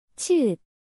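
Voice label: "ㅊ", Korean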